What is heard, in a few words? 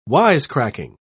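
"wisecracking", English, British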